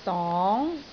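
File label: "dong2", Cantonese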